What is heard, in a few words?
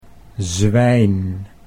"zwijn", Dutch